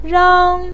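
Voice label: "rang", Mandarin pinyin, tone 1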